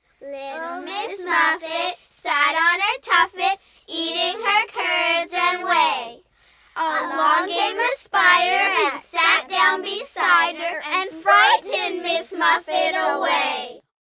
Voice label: Group, Rhyme, Group, 6-8 children, kids, males, females; VoiceBox